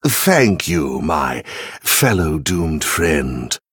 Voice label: Male Venthyr MIRROR GREETINGS